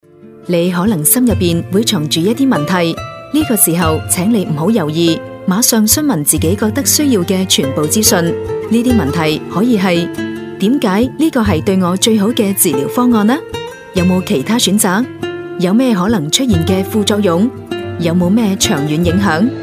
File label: cantonese female voiceover locutora china; Chinese Cantonese voiceover; Chinese Cantonese female Voice overs